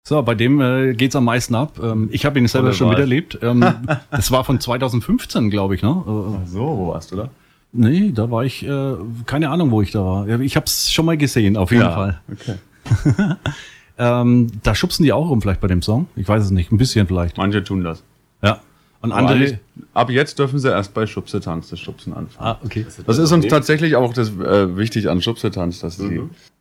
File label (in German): Nach Ansage Met Haemmer